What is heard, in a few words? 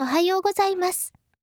"ohayo" normal